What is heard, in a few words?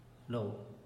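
"lo", Teotitlán del Valle Zapotec; cara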